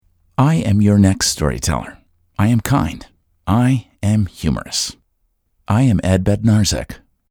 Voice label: men mini demo

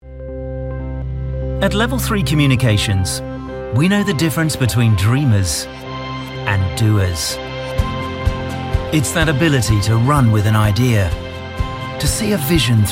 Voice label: british narration; BRITISH » British, Character Actor, Animation.

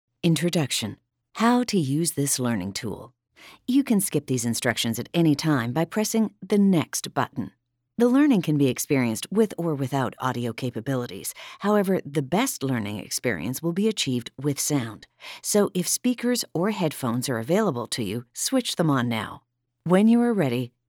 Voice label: women elearning; mid range with lots of shades and color; quirky; warm; caring; smooth; friendly; conversational; sexy.

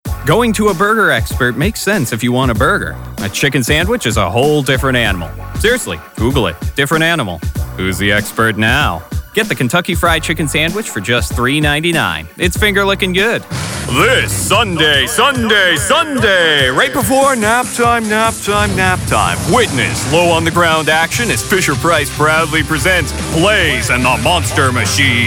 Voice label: men commercial

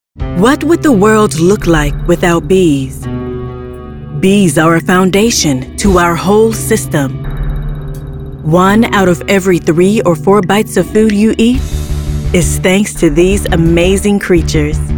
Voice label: african american narration; A natural storyteller with a warm energy that is engaging and authentic.

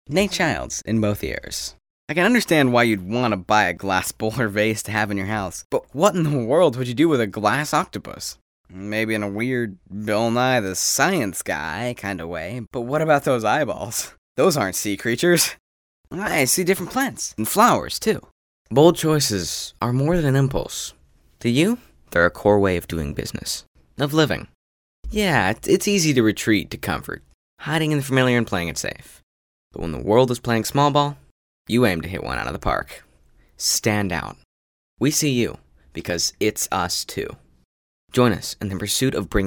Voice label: kids commercial

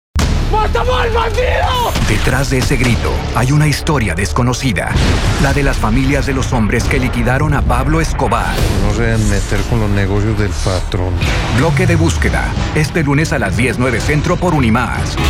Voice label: spanish promos

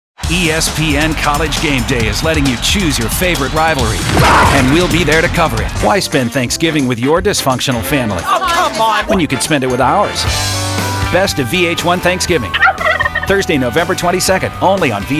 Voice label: men promos; Grounded. Irreverent. Unpredictable. - in a good way!

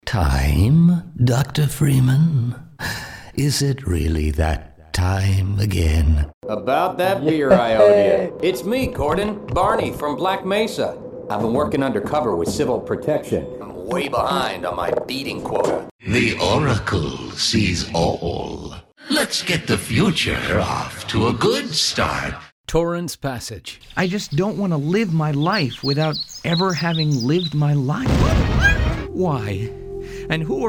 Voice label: men animation; Authentic and confident storyteller. Totally a dad voice, with a nice textured quality.

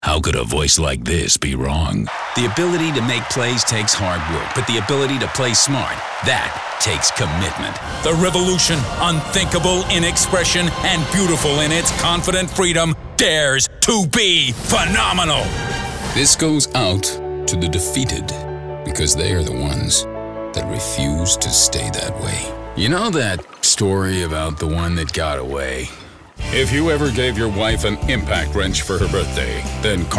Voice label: men commercial; Impressive range with a textured depth.

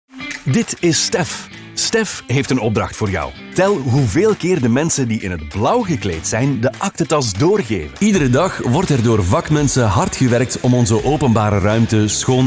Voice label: dutch corporate

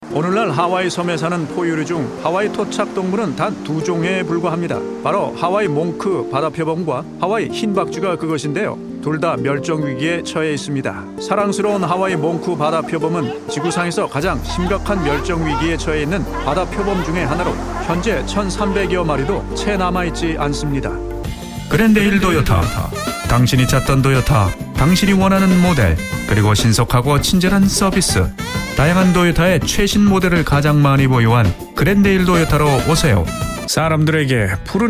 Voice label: korean commercial; KOREAN » Mature and measured Korean voice.

